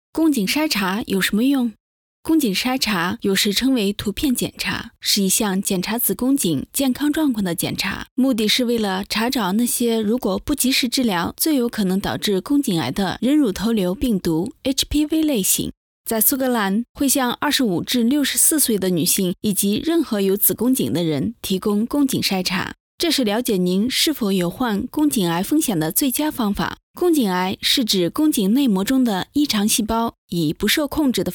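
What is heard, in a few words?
Mandarin Chinese, female, voice over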